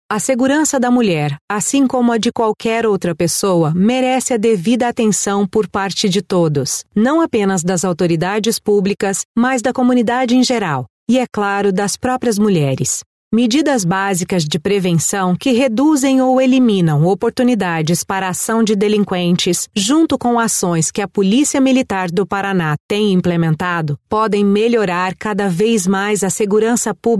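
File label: Brazilian Portuguese, female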